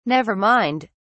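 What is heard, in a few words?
"nevermind", British English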